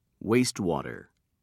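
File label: "wastewater", British English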